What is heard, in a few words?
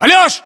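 "alyosha", battlechatter, Russian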